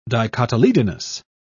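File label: "dicotyledonous", British English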